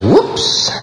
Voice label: whoops, cartoon; ooh ahh